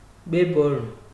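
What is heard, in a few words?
"gbeborun", Nigerian Pidgin, male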